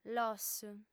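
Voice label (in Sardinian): "los", Sardinian, female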